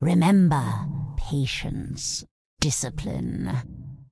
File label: Undead Female Magic Farewell; NPC